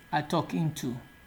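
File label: "atokintu", Nigerian Pidgin, male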